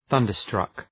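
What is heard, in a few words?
"thunderstruck", English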